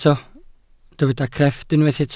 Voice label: "crefft", welsh